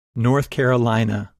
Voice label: "North Carolina", English Pronunciation

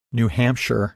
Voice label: "New Hampshire", English pronunciation

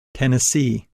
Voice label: "Tennessee", English Pronunciation

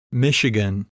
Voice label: "Michigan", English Pronunciation

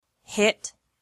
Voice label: "hit", English

